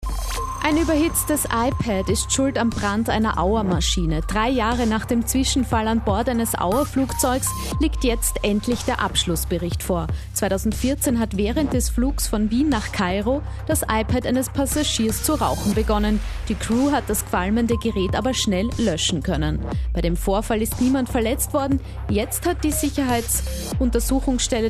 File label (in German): Interview; Kronehit; Rauchendes iPad an Bord eines AUA-A320